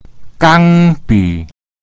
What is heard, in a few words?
"gang1 bi3", Mandarin; a pen